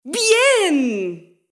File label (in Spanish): "bien", interjeciones; Squeak en el Aula; Interjecciones 2